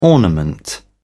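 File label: "ornament", British English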